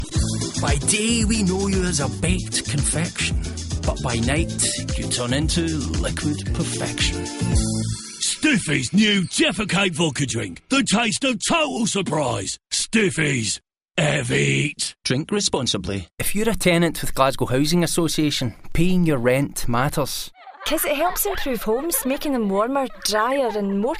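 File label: British Scottish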